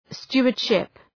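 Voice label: "stewardship", English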